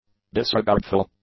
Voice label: "disregardful", English; adjectives